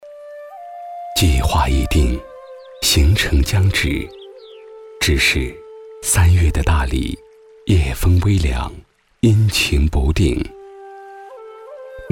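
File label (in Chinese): 男【宣传】; 大理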